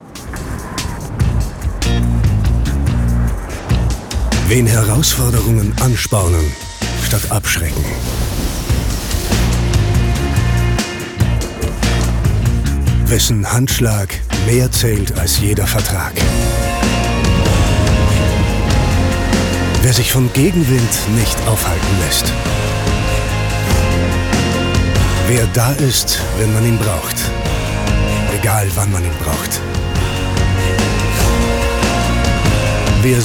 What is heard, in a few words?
tv spot; jever